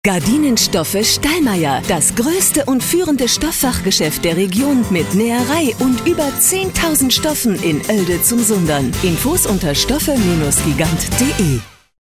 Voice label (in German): radiospot